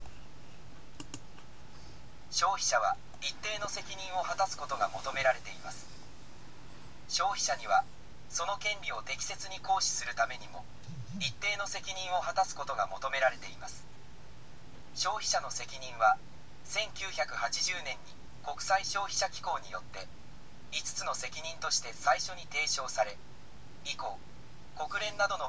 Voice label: elearning; japan; business and human rights